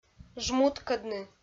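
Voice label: "жмуткӧдны" Komi, female; audio dict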